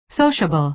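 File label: "sociable", American English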